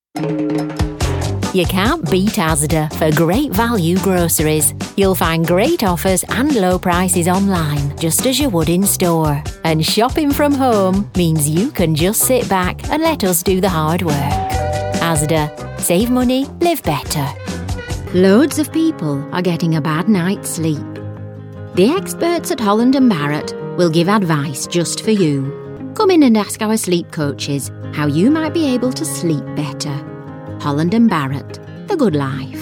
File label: Commercial; regional accents